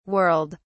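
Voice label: "whirled", British English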